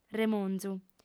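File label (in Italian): "remonzu", lingua sarda, fem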